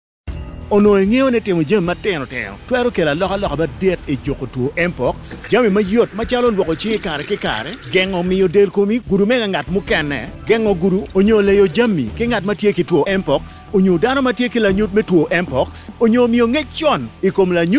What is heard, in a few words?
MPOX Narrator Acholi, radio spots; The MPOX Campaign Safe Circles